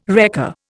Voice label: "wrecker", Indian